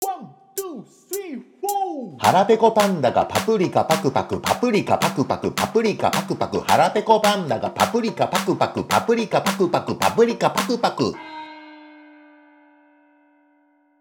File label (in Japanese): 早口言葉リズム（中級編）